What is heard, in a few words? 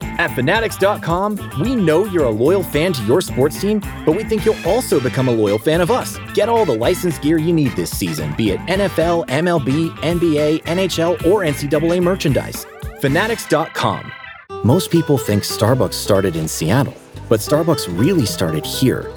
Commercial